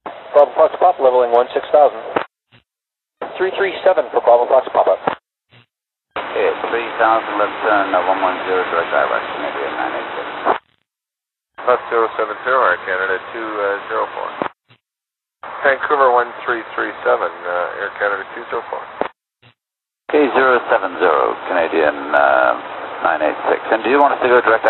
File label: airplane radio